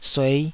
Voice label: "suei5", taiwanese Syllables